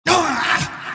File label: monkey crown pain; dota; monkey king